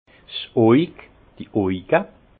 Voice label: "oig", alsace; vin; l’œil les yeux

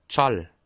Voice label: "schall", alsace

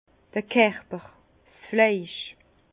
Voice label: "kerwer", Bas Rhin; vin; chair

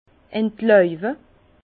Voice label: "entlaube", Bas Rhin; vin; couper les feuilles

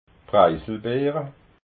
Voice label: "preiselbbeera", alsace

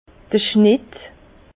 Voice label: "schnett", Bas Rhin; vin; coup de sécateur

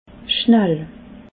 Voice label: "schnall", alsace

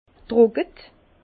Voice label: "trocke", Bas Rhin

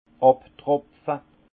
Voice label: "abtropfe", alsace; vin; égouttage

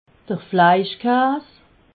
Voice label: "fleischkaas", alsace

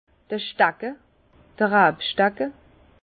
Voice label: "stacke", Bas Rhin; vin; échalas

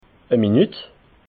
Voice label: "minut", alsace

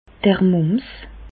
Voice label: "mums", Bas Rhin; medecine; oreillons

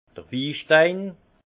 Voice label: "wistein", alsace; vin; cristaux de tartre

